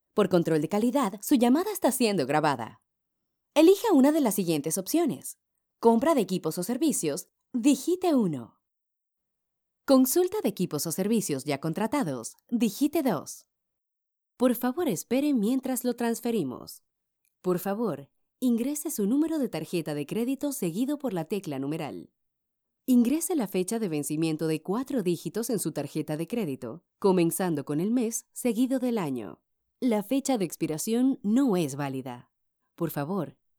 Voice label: IVR

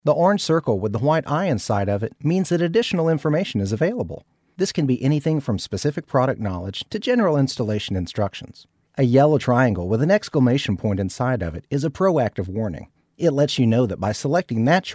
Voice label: E-Learning Voiceover

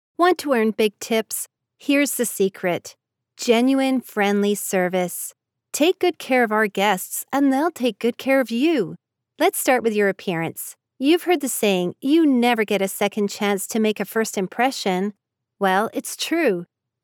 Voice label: General american voiceover

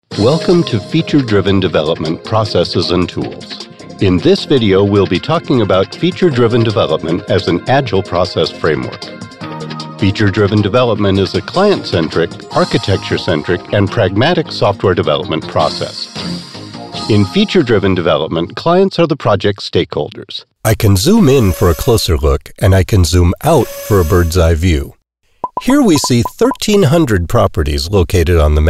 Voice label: E-LEARN

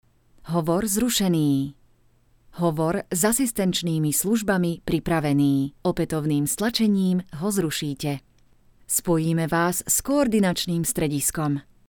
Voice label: CAR EMERGENCY IVR

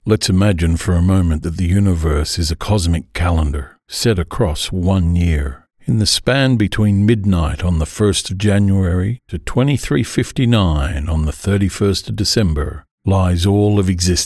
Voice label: Documentary Narrator sample

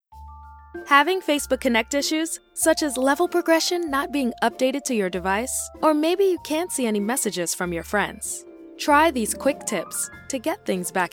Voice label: Explainer Video

